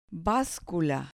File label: "bascula", Spanish